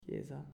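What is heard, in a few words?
"Chiesa", Italian, male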